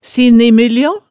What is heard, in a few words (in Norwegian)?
"sinnimyljo", hemsedalsmaalet